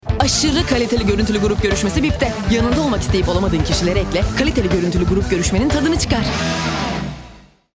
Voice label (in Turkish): neseli esprili, seslendirme